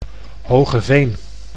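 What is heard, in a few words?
"Hoogeveen", Dutch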